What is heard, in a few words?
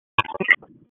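Police Southeast District Dispatch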